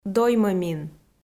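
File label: "доймӧмин", Komi, female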